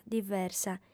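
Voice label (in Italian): "diversa", lingua sarda, female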